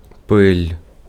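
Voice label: Ukrainian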